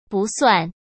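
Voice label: "不算", Mandarin Chinese; hsk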